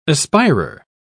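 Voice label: "aspirer", English, British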